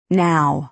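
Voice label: "now", American English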